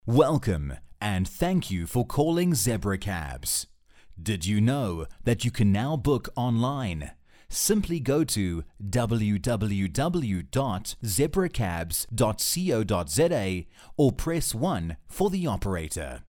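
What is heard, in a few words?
English US, male, IVR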